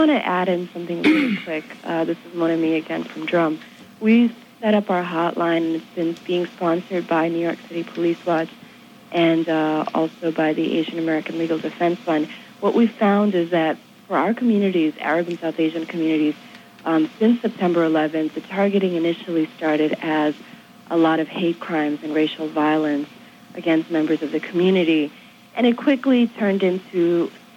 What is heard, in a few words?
Interview